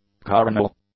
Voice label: "carnal", English; adjectives

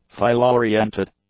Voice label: "file-oriented", english; adjectives

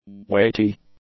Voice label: "weighty", English; adjectives

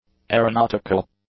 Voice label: "aeronautical", English; adjectives

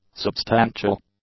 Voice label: "substantial", English; adjectives

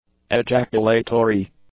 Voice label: "ejaculatory", English; adjectives

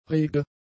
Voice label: "rege", German; adjectives

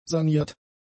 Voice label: "saniert", german; adjectives

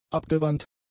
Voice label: "abgewandt", German; adjectives

